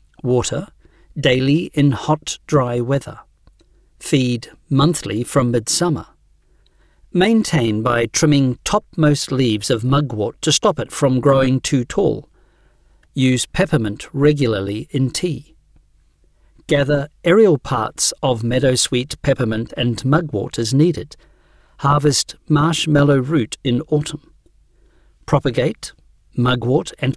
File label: E-learning plants for aid